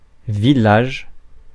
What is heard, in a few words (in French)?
"village", francais; Mots francais phonemes